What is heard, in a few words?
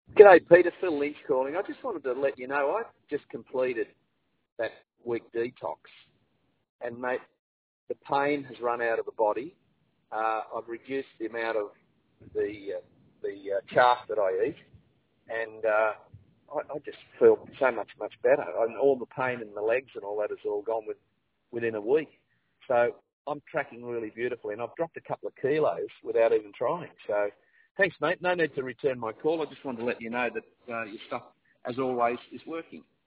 voicemail